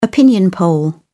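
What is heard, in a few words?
"opinion poll", British English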